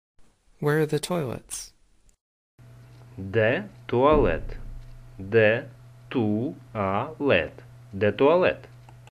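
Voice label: Ukrainian Where the toilets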